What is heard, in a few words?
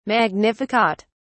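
"magnificat", British English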